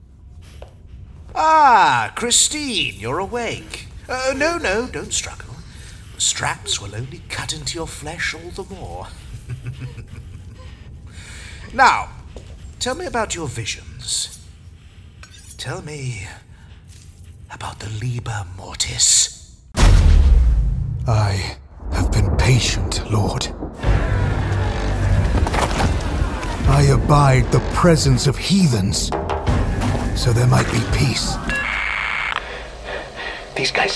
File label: gaming character, game dubbing male voices british accent